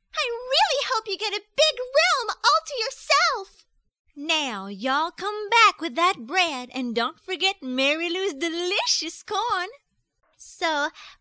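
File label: Childrens Animation, voice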